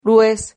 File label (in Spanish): "rues", Silabas